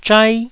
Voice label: "zhai1", Taiwanese syllable